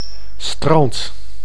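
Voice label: "strand", Dutch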